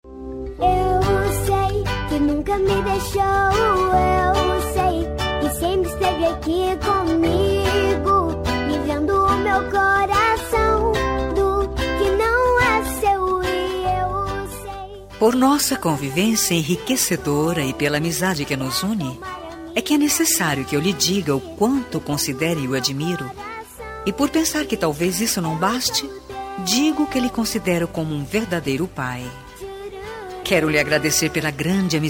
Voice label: consideracao fem, voz feminina; Dia dos Pais

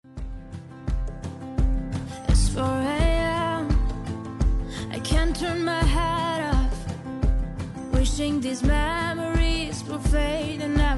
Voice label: dn marido fem; Dia dos Namorados; para marido; voz feminina

